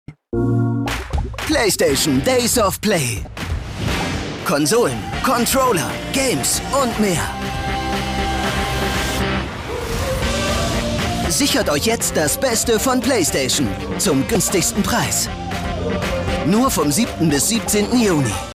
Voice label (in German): Neutral; Fremdtake; Playstation